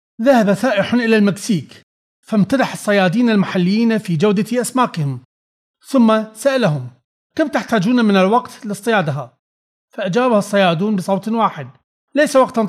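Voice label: Arabic male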